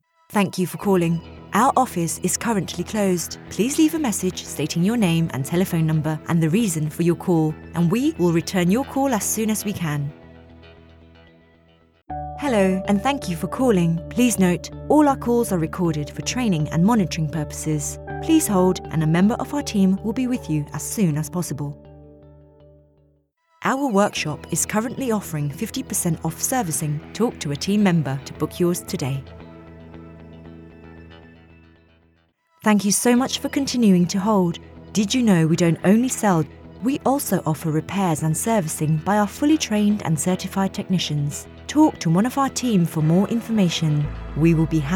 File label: IVR VOICEMAIL